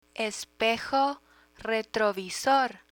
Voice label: "espejo retrovisor", Spanish